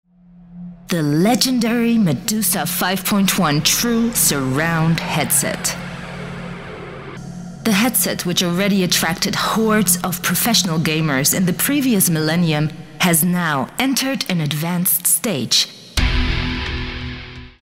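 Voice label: English spot gaming headset; Speedlink MEDUSA 5.1 True Surround Gaming Headset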